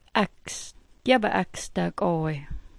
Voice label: "aks", smalgyax